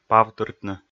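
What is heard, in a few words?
"павтыртны", Komi, male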